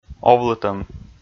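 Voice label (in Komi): "овлытӧм", Komi, male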